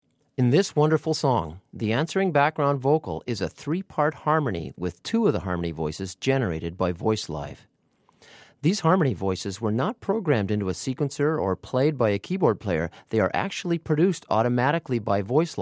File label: commentary